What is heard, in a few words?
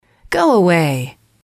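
"go away", English, female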